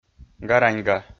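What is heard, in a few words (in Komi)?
"гараньга", Komi-Zyrian, male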